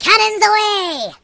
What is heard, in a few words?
Fire, Pirate voice; hedgewars